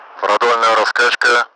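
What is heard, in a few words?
longitudinal swing, Russian; Mi-8MT; DCS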